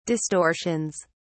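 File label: "distortions", British English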